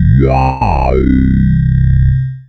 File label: FORMANT, Funky Vocals; USB Soundscan vol.18; AKAI; COMPUTER